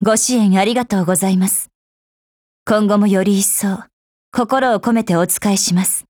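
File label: Japanese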